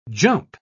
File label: "jump", pronounce